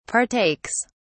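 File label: "partakes", British English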